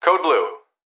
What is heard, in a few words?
Emergency Human Young Male Voice Hospital Calls Code Blue; emergency effects